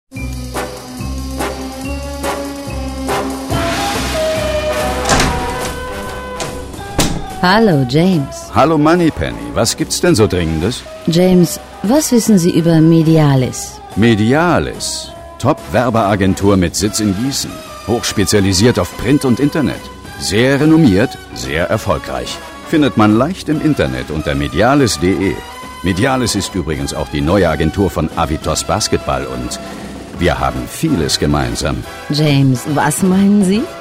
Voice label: Werbung

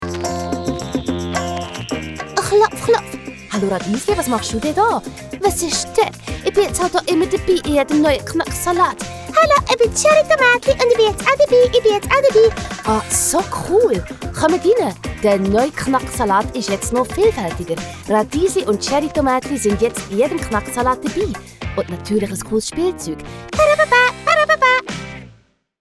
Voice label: Jingle; Knacksalat

